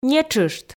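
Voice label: "нетшышт", Komi, female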